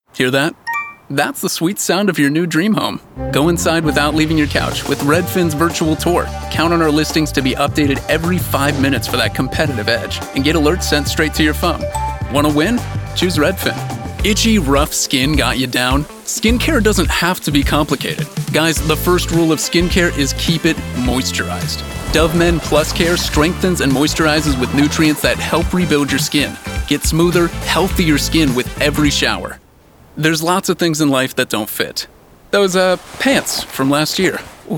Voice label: Commercial